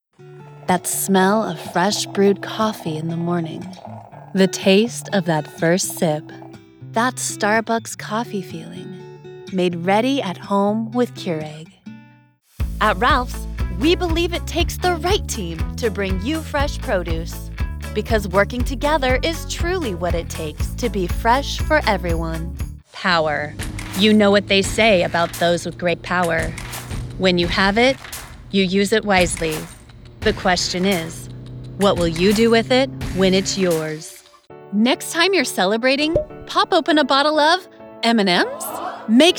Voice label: Commercian

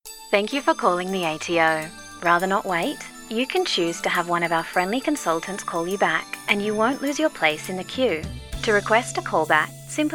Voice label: On Hold

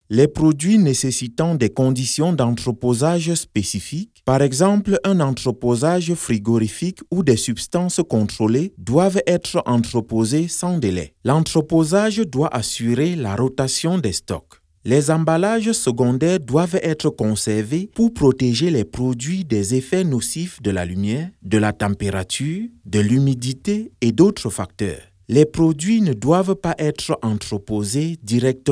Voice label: FR African

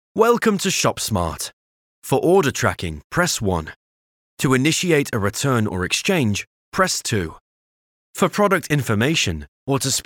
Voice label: Guided Voicemail British

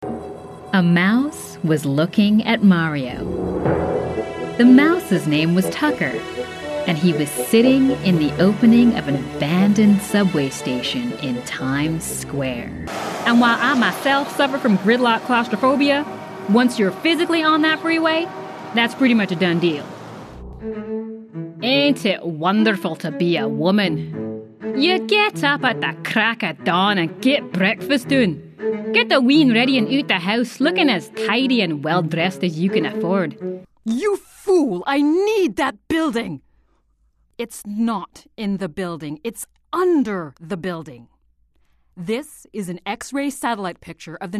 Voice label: Character demo

